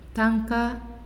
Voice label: "Hand", Naasioi